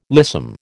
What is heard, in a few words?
"lissom", American